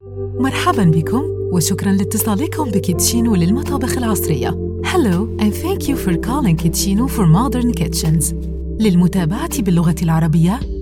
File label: Egyptian accent, Classy, friendly; IVR